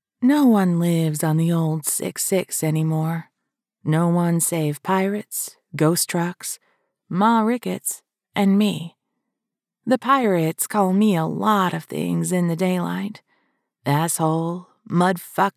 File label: Scifi Fantasy 1st person; First Omega